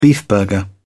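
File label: "beefburger", English, British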